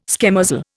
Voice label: "schemozzle", Indian